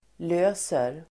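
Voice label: "läser", Swedish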